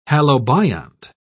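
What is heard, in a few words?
"halobiont", British English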